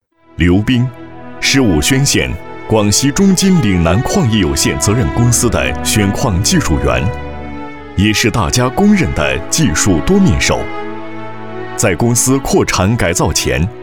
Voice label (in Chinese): 男 独白